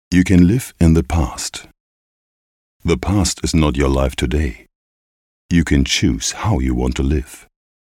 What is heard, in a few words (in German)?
englisch